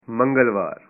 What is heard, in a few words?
"Tuesday", punjabi